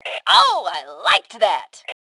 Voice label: Compliment Nice Move, Female; T2 Female 03